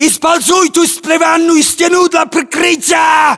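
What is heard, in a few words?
order cover wall broken, battlechatter, Russian; localized english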